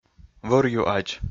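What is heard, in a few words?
"вӧр ю адз", Komi, male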